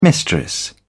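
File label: "mistress", British English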